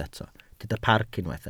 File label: "parc", Welsh